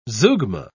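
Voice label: "zeugma", British English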